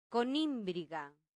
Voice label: "conimbriga", latin; santillana